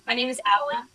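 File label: voice acting, es, female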